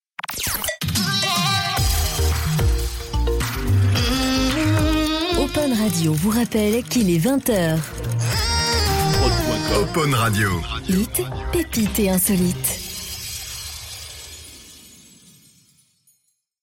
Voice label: Radio Top H 20h; Opon

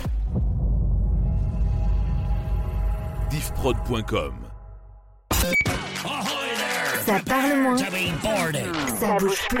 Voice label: Radio Jingles x5; Radio Kafeine

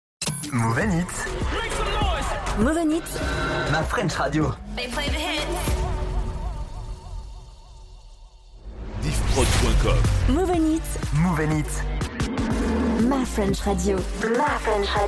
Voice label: Sweeps x5; Moov N Hits

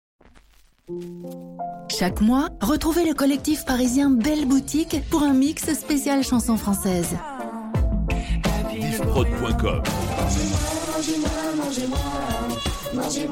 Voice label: Spot, French; Les Sillons de Tonton; Belle Boutique

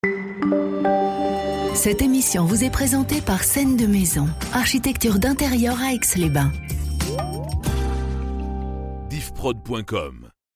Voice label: Radio Jingle; Radio Grand Lac; Scenes de maison

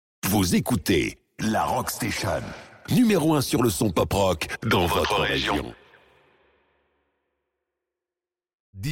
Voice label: Radio Drop Rock Station; Radio Aix

